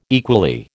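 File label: "equally", American English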